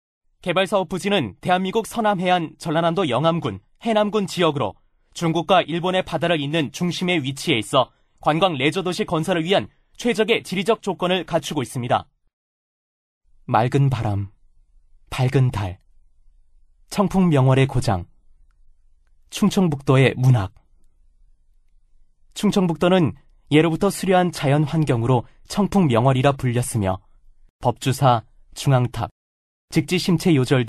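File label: Korean male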